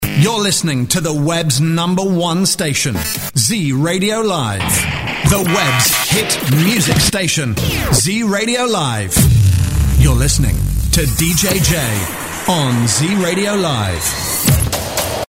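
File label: radio imaging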